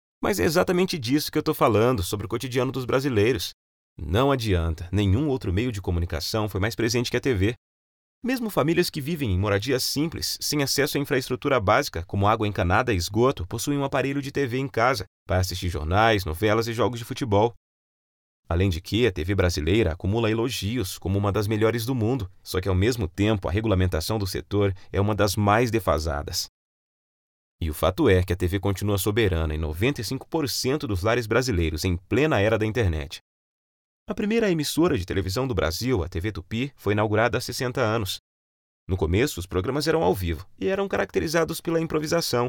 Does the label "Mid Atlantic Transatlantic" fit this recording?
No